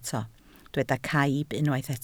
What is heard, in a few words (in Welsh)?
"caib", Welsh